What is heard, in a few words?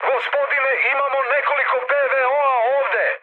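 Serbian damaged aa mood high, speech; War Thunder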